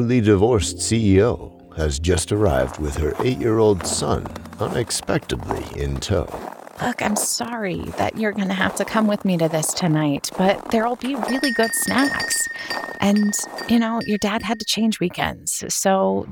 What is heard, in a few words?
Radio Play